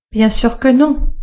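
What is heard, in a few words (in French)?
"bien sûr que non", female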